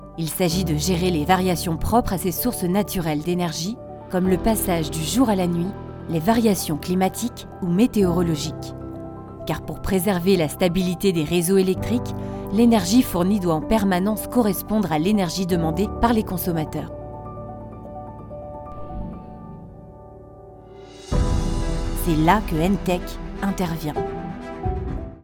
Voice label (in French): INSTITUTIONNEL Français